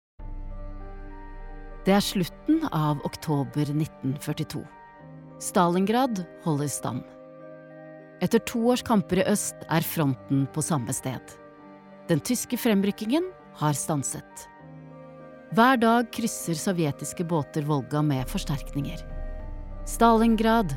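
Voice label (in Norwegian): dokumentar, Norwegian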